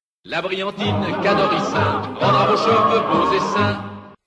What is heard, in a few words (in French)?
radio spot